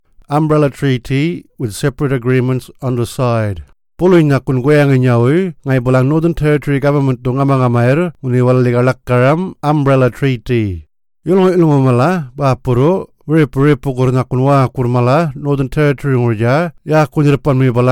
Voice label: Yolngu Matha; Umbrella Treaties